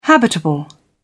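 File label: "habitable", British English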